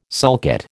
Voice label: "sulcate", American